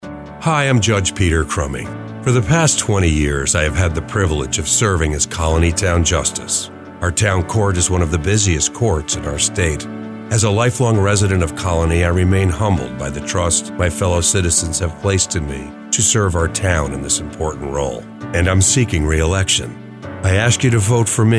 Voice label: Radio Ad